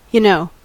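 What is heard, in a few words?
"y'know", American English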